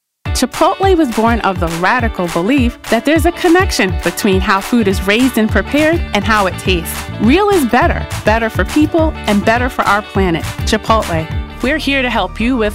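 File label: commercial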